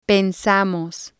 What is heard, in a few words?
"pensamos", spanish